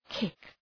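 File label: "kick", English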